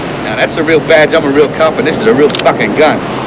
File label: annoyed